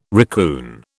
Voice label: "raccoon", British